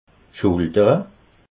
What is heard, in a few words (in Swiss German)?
"schultere", alsace